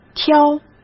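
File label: "挑", Mandarin Chinese; hsk; chinese-flashcards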